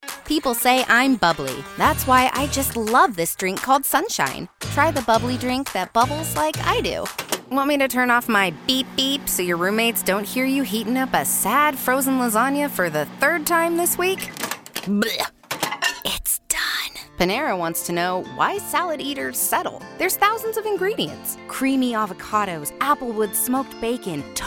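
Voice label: female, American English, commercial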